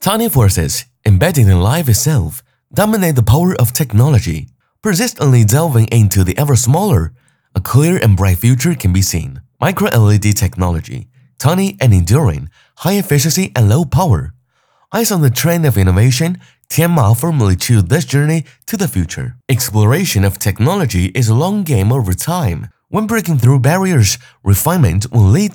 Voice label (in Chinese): 男 英文科技 专业旁白; 天马科技